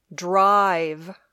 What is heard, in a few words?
"drive", English